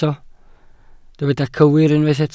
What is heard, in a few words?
"cywir", Welsh